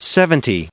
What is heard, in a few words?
"seventy", en-us recite